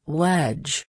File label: "wedge", Reino Unido; girias